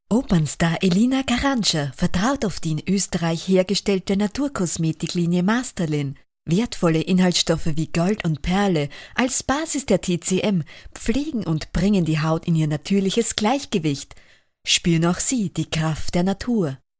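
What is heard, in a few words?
Calm Ad German Female Voiceover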